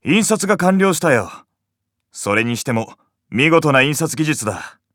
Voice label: 印刷完了, PCシステムボイス

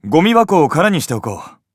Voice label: ごみ箱, PCシステムボイス

